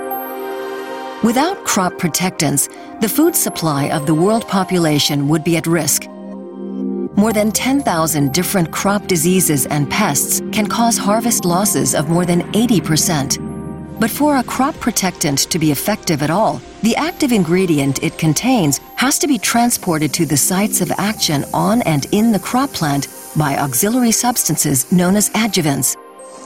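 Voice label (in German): English US Freundlich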